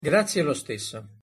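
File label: pronunciation "grazie lo stesso", Italian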